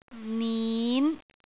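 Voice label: "nin5", Cantonese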